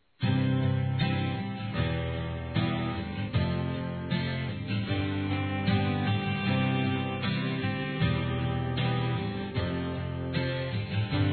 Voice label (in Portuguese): Ex Namorado Feminino